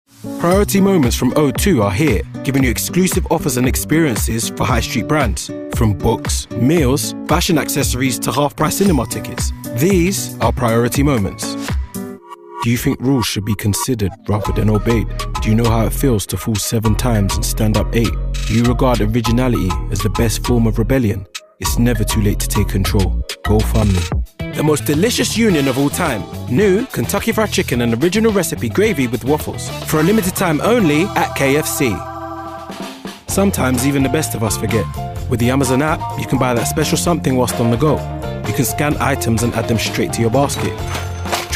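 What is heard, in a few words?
Commercial Portuguese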